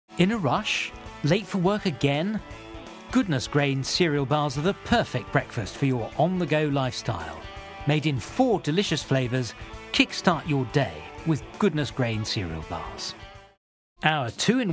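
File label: British, TV commercial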